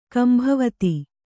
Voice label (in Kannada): "ಕಂಬಾವತಿ", Kannada